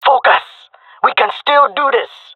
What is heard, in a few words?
round losing, male; jungle; Counter Strike Model Pack; CS:GO